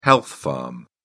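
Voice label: "health spa", British English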